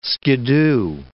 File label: "skidoo", words